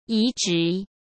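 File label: "移植", Mandarin Chinese; chinese-flashcards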